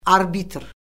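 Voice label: "arbitr", Azerbaijani; Orfoqrafiya Lüğəti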